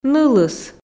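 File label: "нылыс", Komi, female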